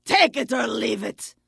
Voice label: Vrykul Female Warrior Vendor

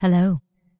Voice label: Night Elf Female Standard NPC Greeting

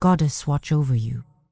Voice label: Night Elf Female Priestess NPC Farewell

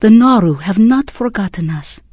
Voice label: Draenei Female Military Greeting

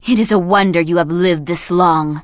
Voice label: Blood Elf Female Standard Pissed

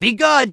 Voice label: Goblin Male Gruff NPC Farewell

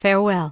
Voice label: Human Female Official NPC Farewell

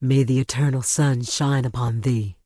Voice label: Tauren Female Official NPC Farewell

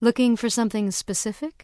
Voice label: Human Female Official NPC Vendor

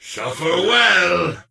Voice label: Death Knight Male Med Farewell

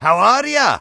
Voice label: Dwarf Male Standard Greeting; NPC

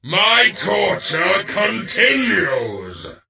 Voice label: Death Knight Male Pissed

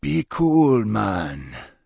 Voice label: Troll Male Standard NPC Pissed

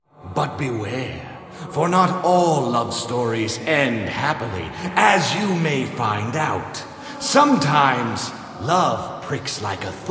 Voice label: Announce; Barnes The Stage Manager

